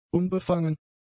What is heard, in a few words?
"unbefangen", German; adjectives